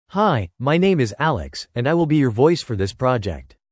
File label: English male; speech-synthesis; Alex